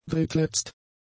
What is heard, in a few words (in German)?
"drittletzt", German; adjectives